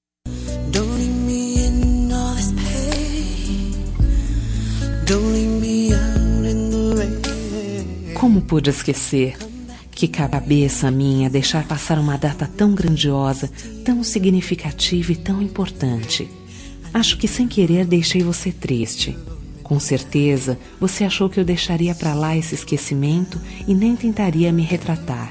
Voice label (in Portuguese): Romântica, F; Esqueci da Data Importante